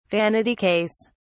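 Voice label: "vanity case", British English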